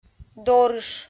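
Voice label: "дорыш", Komi, female; kpv audio dict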